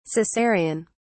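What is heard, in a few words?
"cesarian", British English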